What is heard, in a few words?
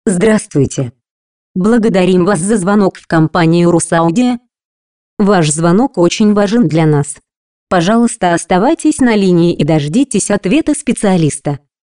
robot